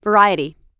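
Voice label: "variety", American English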